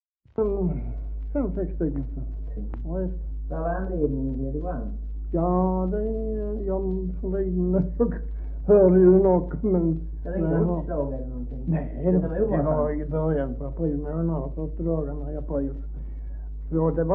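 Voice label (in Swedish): Maglehem Skåne, Svenska sagor dialekter; Folkminnen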